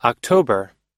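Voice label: "october", US English; October – Outubro; Datas em Inglês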